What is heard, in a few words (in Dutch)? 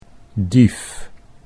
"dief", Dutch